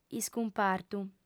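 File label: "iscumpartu", female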